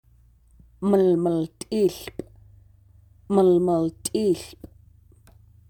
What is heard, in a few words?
trembling aspen, syilx okanagan; syilx okanagan partnerships